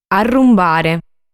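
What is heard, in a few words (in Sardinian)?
"Arrumbare", Sardinian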